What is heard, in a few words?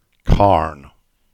Cornish; Carne